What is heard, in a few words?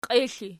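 "qiexl" ergative, Archi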